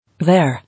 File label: "There", English